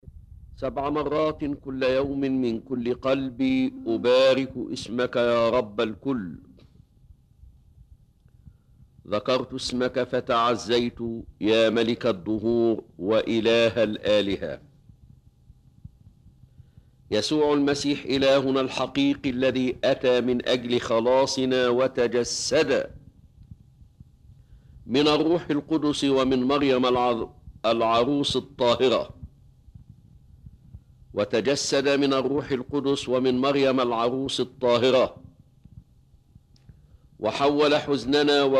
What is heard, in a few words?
Arabic Reading; St. Mary; Shashf Ensop